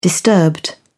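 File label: "disturbed", British English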